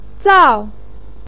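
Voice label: "zao4", Mandarin Chinese, pinyin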